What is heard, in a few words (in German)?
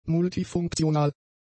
"multifunktional", German; adjectives